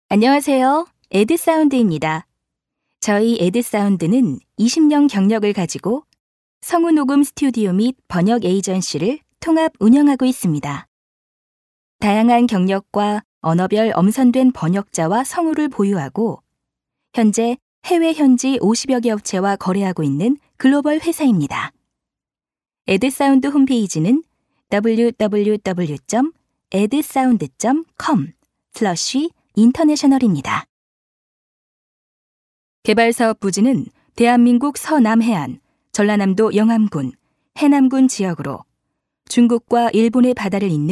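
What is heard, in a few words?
Korean, female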